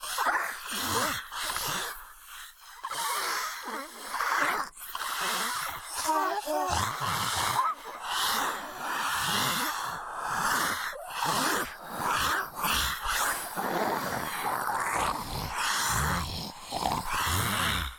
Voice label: Enemy General Girl Chloro Voice Loop; pulling no punches